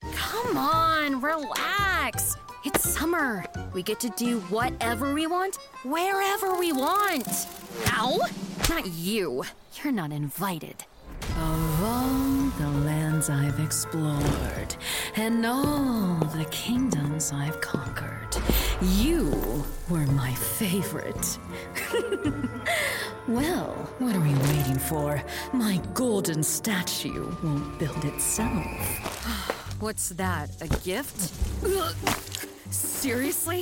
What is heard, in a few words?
animation